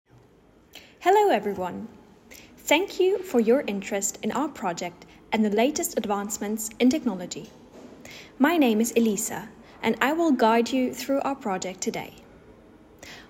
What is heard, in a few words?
Narration; Turbine; SharedSpatialAnchors